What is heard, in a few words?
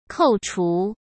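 "扣除", Mandarin Chinese; hsk; chinese-flashcards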